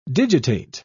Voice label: "digitate", pronounce

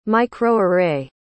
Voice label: "microarray", pronounce

